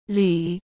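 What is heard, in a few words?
"缕", Mandarin Chinese; chinese-flashcards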